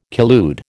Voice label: "collude", American